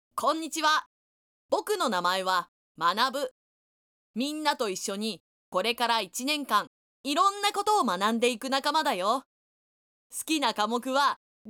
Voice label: female